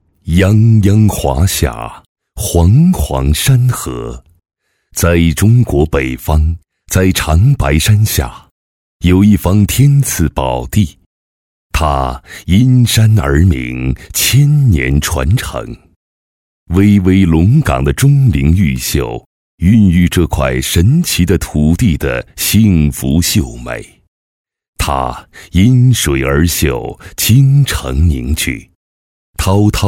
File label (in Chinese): 男 专题 舒缓大气; 柳河